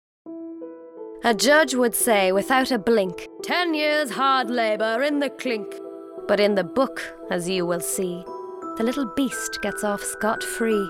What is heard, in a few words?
Animation Narration